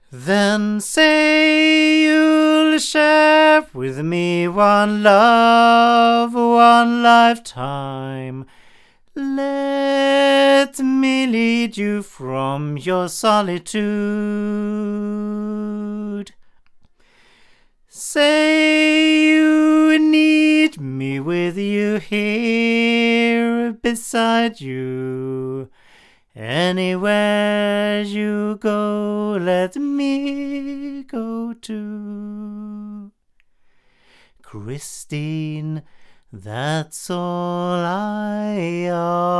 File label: Avant CV-12 tube cardioid male